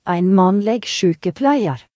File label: nurse male; gcompris-data